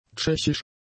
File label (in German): "tschechisch", German; adjectives